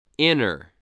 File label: "inner", voice spelling